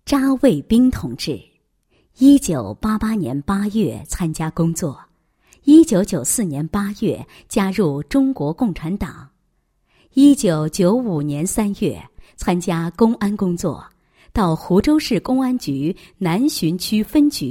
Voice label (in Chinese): 女 人物专题 纪念片; 南浔工作纪念片